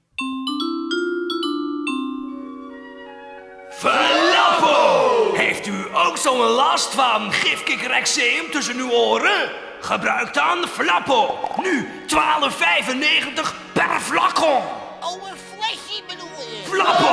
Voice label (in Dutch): Flappo reclame